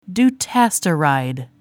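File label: "dutasteride", English; Davis Drug Guide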